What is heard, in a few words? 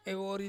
"vori" to buy, Ansus